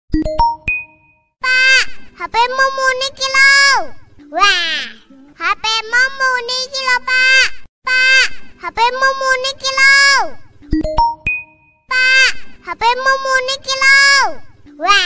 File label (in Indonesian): nada dering jawa, suara bocil; pak hpmu muni kilo; dewanvision